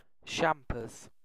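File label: "champers", British English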